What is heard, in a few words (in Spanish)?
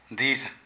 Ardilla, Zapoteco de Petapa; Animales; Vocabulary